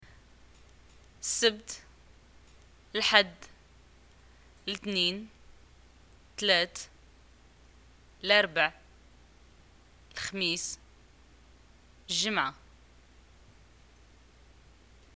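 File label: days, Moroccan arabic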